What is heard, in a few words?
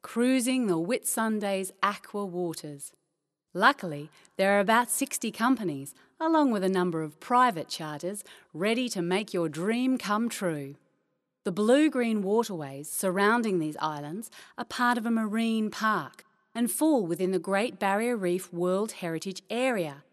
Travel Documentary Australian